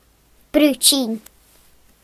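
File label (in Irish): "mashed potatoes", Irish; gcompris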